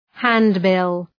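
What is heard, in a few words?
"handbill", English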